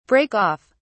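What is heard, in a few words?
"break off", British English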